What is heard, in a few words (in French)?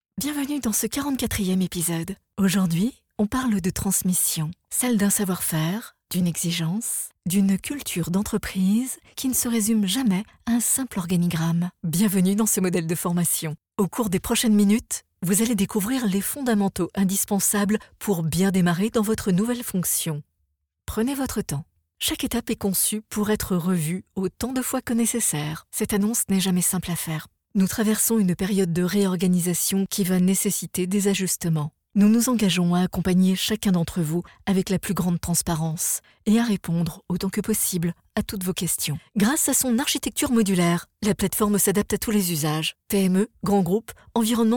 INSTITUTIONNEL